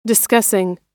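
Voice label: "discussing", American English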